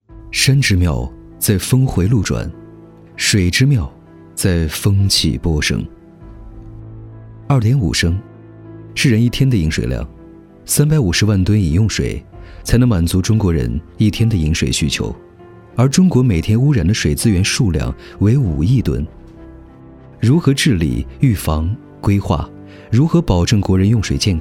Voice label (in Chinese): 水广告